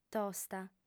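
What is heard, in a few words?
"tosta", lingua sarda, female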